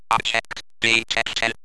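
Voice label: "object", English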